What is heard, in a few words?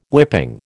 "whipping", American